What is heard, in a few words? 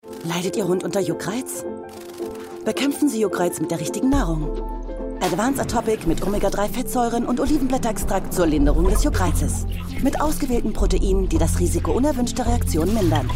female german skin comercial; ideasonora